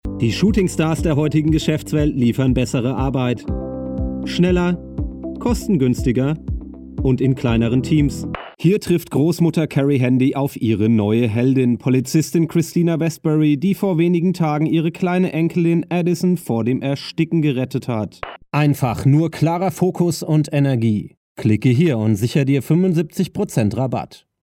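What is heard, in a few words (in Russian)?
голоса, German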